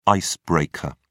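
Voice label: "ice breaker", British English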